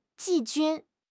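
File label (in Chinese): "季军", Chinese; HSK6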